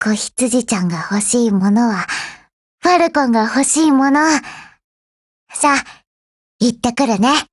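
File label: OPERATION BEGIN Japanese, Voice; GF Alarm; Falcon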